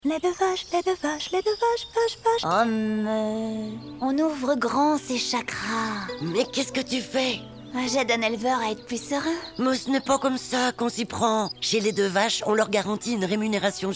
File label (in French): Spot Radio; Aliments